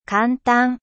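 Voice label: "kantan", Japanese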